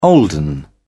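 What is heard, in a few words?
"olden", British English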